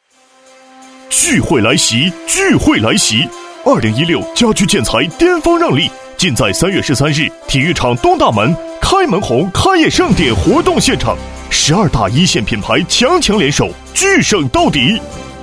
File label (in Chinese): 男 广告 浑厚激情